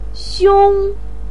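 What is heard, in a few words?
"xiong", Mandarin pinyin, first tone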